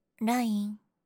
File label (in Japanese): ライン！（ダウナー）; リクエストシステムボイス